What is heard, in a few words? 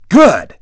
dj nice; DJ VOICE; Nintendo; July 2020 Gigaleak